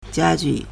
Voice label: "jia1 ju4", Chinese